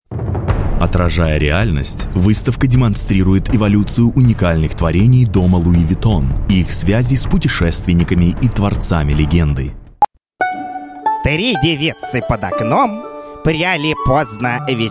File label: Russian English Accent, Male